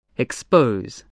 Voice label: "expose", voice